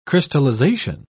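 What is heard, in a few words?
"crystallisation", British English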